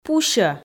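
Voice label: "пусьӧ", Komi, female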